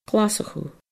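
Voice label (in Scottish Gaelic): "Glaschu", Scottish Gaelic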